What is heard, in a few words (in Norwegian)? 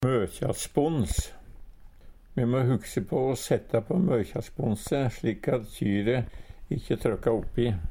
"møkjaspons", Numedalsmål